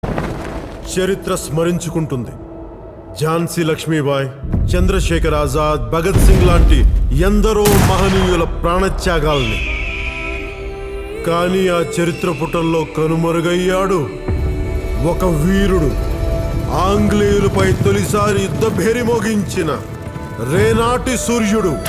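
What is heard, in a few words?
Teaser Telugu Voice Dialogue; Sye Raa Narasimha Reddy; SRNR; Pawan Kalyan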